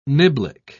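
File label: "niblick", British English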